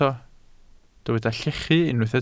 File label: "llechu", Welsh